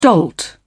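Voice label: "dolt", British English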